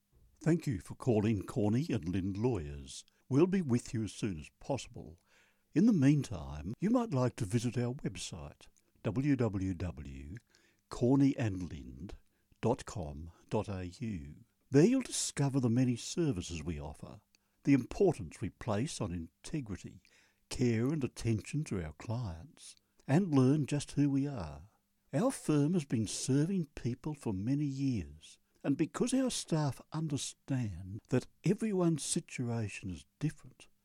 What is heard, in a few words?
message on hold